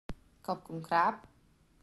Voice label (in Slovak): "dakujem" chlapec